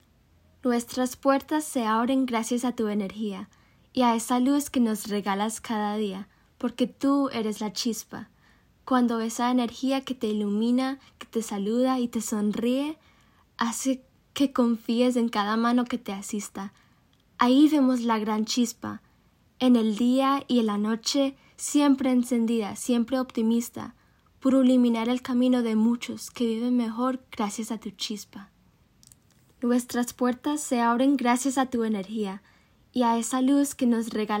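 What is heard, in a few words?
SPANISH, voiceover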